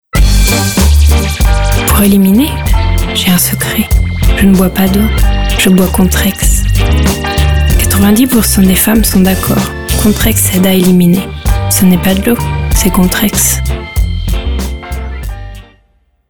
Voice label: commercial